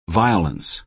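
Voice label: "violence", American English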